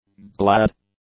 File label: "glad", english; adjectives